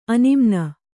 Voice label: "ಆನೆಮೀನು", Kannada